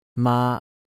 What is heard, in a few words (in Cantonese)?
"maa1", Cantonese